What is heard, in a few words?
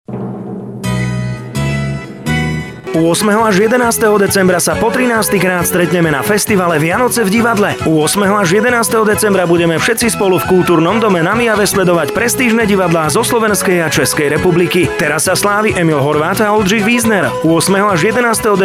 radio; vianoce v divadle